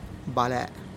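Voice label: "balè'", Teotitlán del Valle Zapotec; nanche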